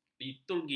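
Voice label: "liturgi", Malay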